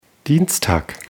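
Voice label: "dienstag", German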